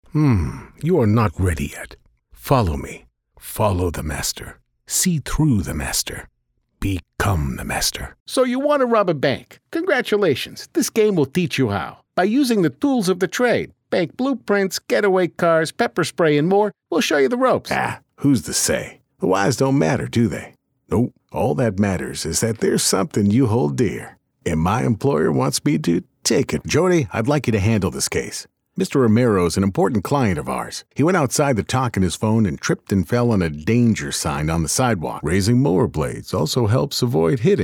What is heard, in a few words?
Character Voices